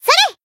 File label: Voice attack, jp; thillelille